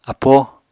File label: "apóo", Apatani